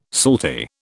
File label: "salty", British